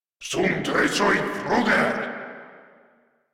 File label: Cover Me Grineer Male